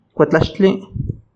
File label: "kuetlaxtli", Náhuatl; piel o cuero; skin or leather